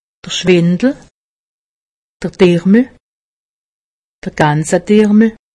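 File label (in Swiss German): "dirmel", Alsatian; medecine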